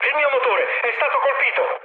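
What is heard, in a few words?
Italian damaged engine mood high, speech; War Thunder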